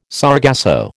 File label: "sargasso", American